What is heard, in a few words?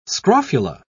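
"scrofula", British English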